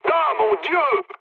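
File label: French trick mood low; War Thunder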